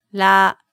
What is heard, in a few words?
"ra", hiragana